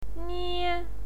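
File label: "nie" first tone, pinyin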